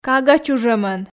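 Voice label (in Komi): "кага чужӧмӧн", Komi, female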